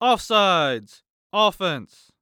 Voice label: Human Voice Male Young Man Football Referee Off Sides Offense; Sports